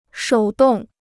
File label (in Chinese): "手动", Chinese